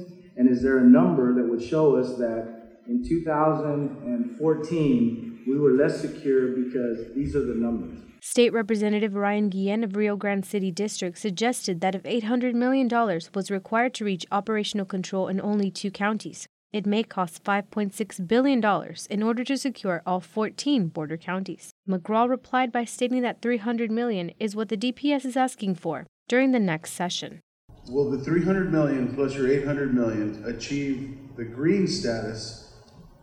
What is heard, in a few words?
Homeland Security Radio News